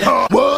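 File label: "huh what", vocal sample; ooh ahh; heh huh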